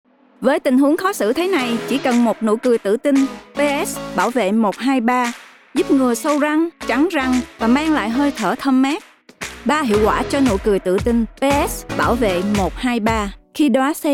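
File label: Vietnamese female Commercial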